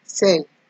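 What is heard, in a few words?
"sell", Nigerian Pidgin, female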